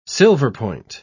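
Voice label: "silverpoint", British English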